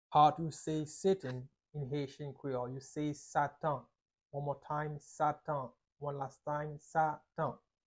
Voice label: "Satan" Haitian Creole pronunciation by a native Haitian tutor; How to say Satan in Haitian Creole